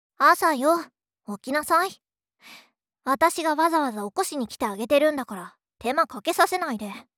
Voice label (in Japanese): 目覚ましボイス; エレナ